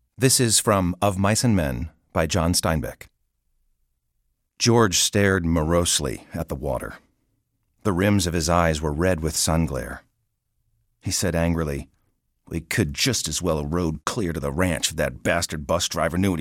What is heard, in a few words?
narration audiobook fiction; of mice and men; john steinbeck